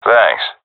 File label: thankful; vo; Counter Strike Model Pack; CS:GO; seal diver